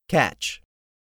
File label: "catch", english; 3000 words